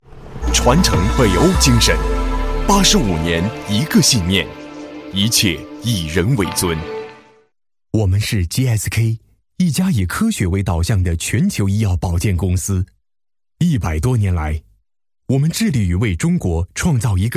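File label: male, Mandarin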